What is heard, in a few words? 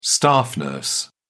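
"staff nurse", British English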